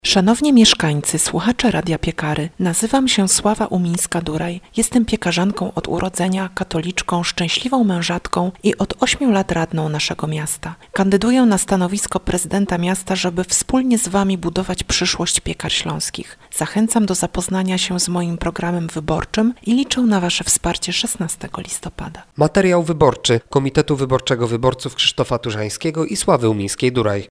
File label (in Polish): spot reklamowy